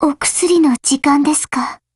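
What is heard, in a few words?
Japanese, Voice; GF Alarm; Ribeyrolles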